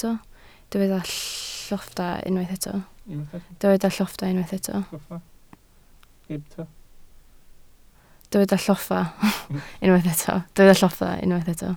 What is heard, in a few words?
"lloffa", Welsh